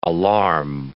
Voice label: "alarm", English